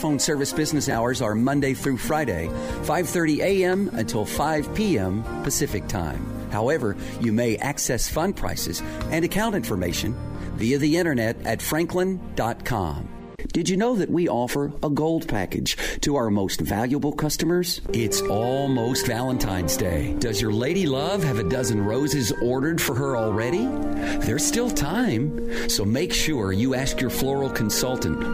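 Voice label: Phone Hold IVR Male, Friendly, Real, Relatable; Narration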